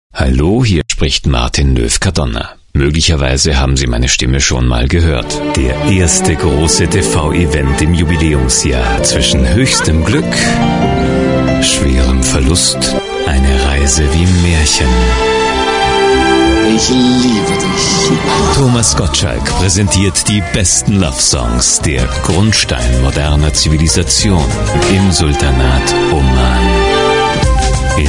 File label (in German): D Werbung